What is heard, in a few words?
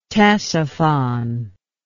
"ctesiphon", english